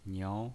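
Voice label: "niao", pinyin voice, Mandarin Chinese, second tone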